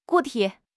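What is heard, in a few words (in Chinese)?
"固体", Chinese; HSK; HSK6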